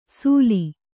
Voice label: "ಸುಲಿ", Kannada

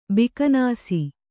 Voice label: "ಬಿಕನಾಸಿ", kannada

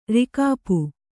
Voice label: "ರಿಕಾಪು", Kannada; rikāpu; noun

